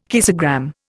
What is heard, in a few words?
"kissogram", Indian